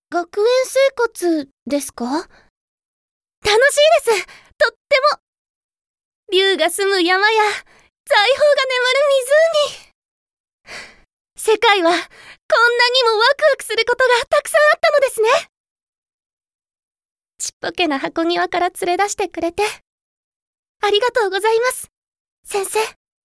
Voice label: voicesample Koukousei

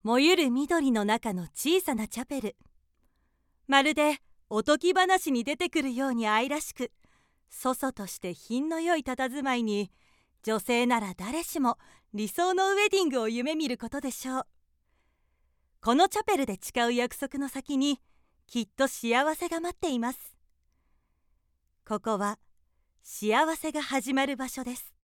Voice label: narration

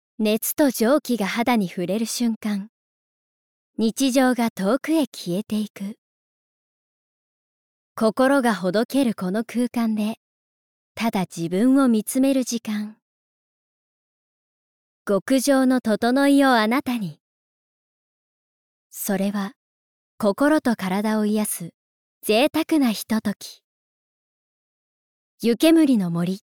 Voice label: Narration Sauna, ナレーション①サウナ施設

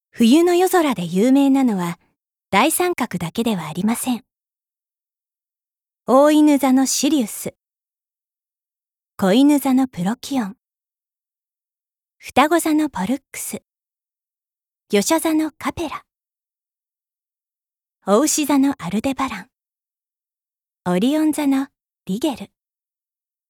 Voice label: Narration

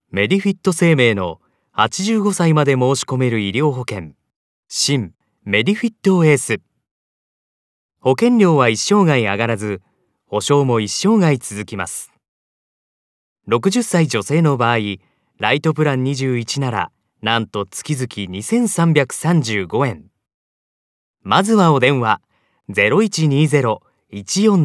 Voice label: narration

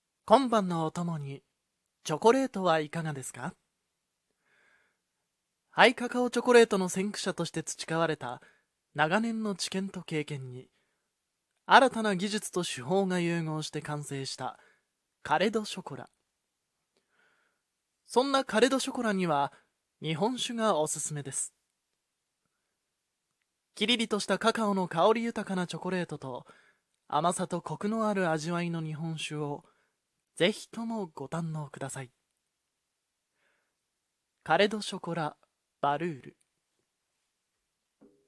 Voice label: narration

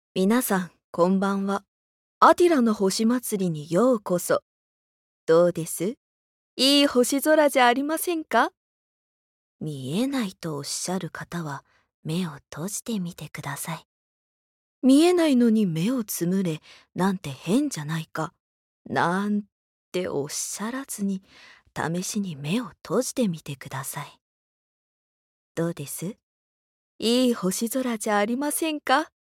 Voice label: Narration Misuteriasu

